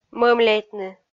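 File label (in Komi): "мӧмляйтны", Komi, female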